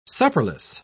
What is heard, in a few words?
"supperless", en uk